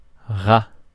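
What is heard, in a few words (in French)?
"rat", French; phonemes; Mots francais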